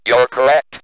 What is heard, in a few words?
"you're correct", Speak & Math Speech